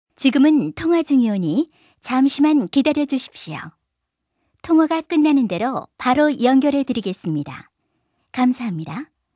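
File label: Korean, female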